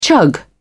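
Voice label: "chug", British English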